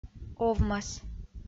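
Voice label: "овмӧс" Komi-Zyrian, female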